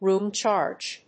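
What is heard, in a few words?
"room charge", English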